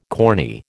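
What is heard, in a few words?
"corny", American English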